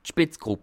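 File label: "spitzgrupp", Alsatian, Bas Rhin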